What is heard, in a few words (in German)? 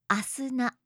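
"Asuna", japanische Mädchennamen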